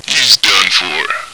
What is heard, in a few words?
radio, male; action-quake2